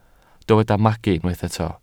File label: "magu", Welsh